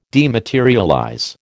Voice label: "dematerialize", American